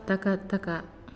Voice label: "Hot", Naasioi